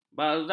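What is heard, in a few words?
"barzakh", Malay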